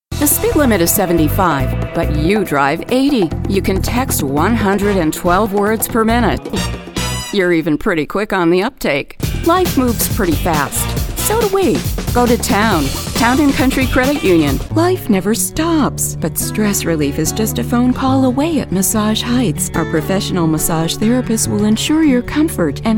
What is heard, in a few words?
Commercial